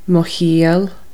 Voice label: my sense, Irish, Consonants